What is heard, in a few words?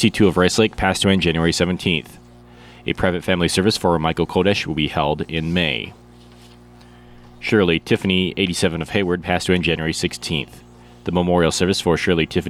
Funeral Announcements